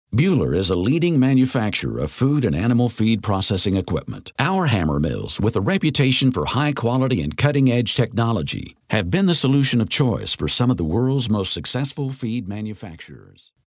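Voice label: American English, male, corporate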